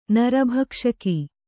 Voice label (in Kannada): "ನರಭಕ್ಷಕಿ", Kannada